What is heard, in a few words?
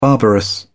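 "barbarous", British English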